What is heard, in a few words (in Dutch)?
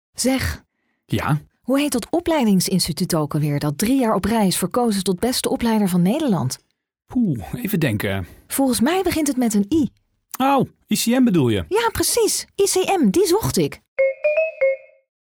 radiocommercial; ICM